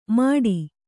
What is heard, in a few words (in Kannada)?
"ಮಡಿ", Kannada